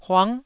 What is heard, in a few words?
"huang9", taiwanese syllable